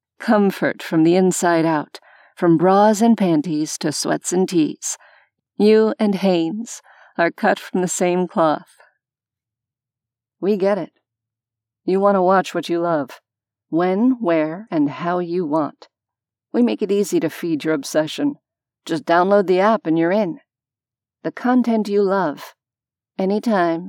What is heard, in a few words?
commercial